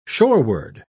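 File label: "shoreward", British English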